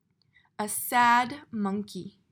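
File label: "a sad monkey", English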